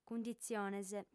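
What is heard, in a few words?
"cunditziones", female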